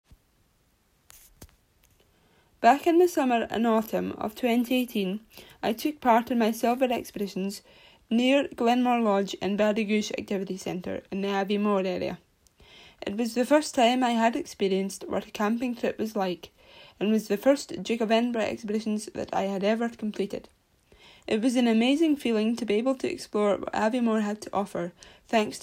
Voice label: Voiceover; Expedition Video Reel